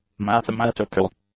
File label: "mathematical", English; adjectives